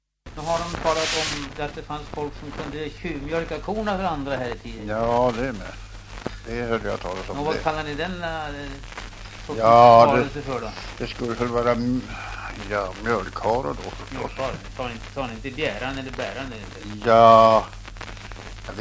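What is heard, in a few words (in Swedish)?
Edsbro Uppland, svenska sagor dialekter; Folkminnen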